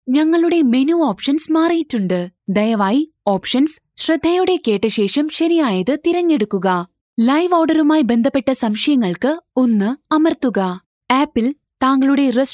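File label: Malayalam Female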